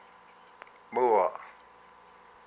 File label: "its fur", hupa